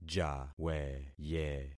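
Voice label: "jaweye", concatenated syllables; Affixing experiment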